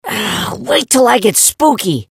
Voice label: hurt vo; gus

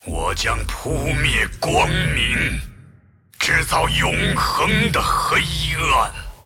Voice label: move, zh

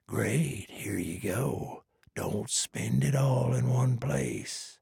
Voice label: trader male dislike quest complete

